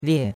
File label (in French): "lie4", chinois; Cours-de-Chinois